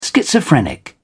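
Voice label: "schizophrenic", British English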